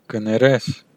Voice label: excuse me, armenian western